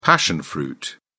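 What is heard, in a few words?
"passion fruit", British English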